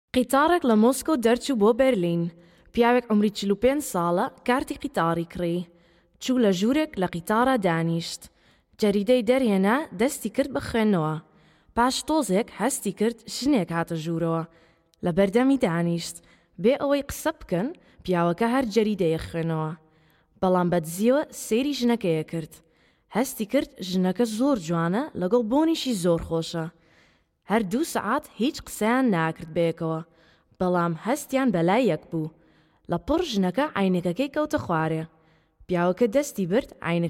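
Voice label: Kurdish, female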